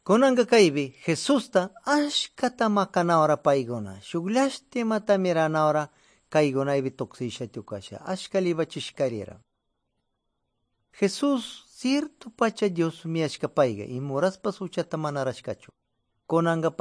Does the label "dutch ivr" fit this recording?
No